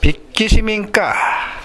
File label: "bikki", minamiyamagata; 蛙。〈べっき〉とも。